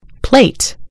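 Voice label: "plate", American English; gcompris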